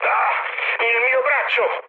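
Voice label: Italian damaged pilot mood high, speech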